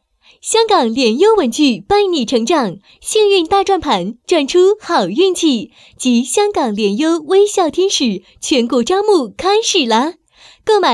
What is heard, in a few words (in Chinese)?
广告音